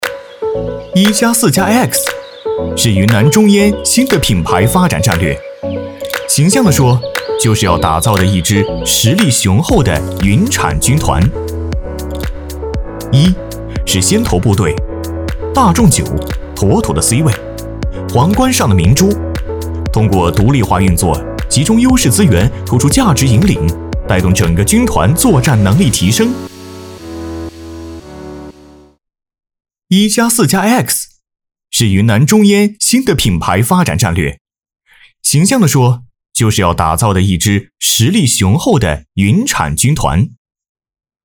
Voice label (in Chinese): 男,MG,年轻活力; 飞碟说MG; 云南中烟